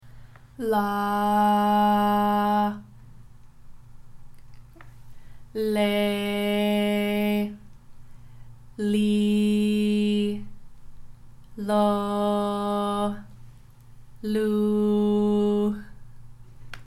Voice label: Recording Normal Pitch; Audacity